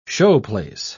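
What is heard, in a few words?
"showplace", British English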